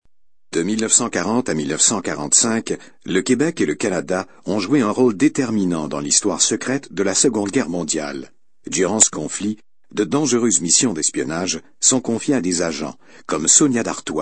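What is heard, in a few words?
French male voice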